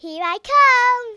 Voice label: kamikaze, Kidz; Worms Armageddon